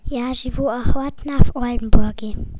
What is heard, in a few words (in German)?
Ich wohne gern in Oldenburg, russisch